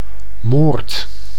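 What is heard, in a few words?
"moord", Dutch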